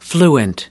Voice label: "fluent", us